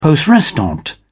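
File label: "poste restante", British English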